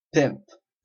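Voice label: "pimp", Canadian English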